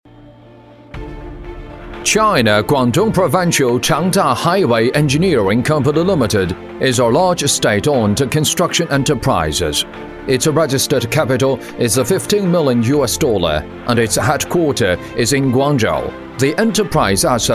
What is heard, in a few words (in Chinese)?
英男 自信讲述; 广东长大建筑